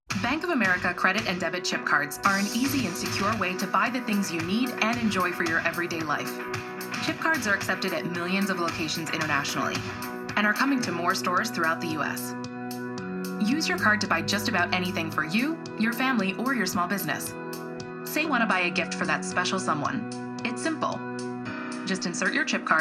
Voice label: Explainer; Bank of America